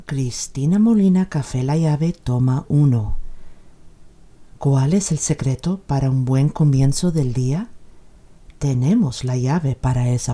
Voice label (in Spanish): Espanol Voz Baja, voiceover; Cafe La Llave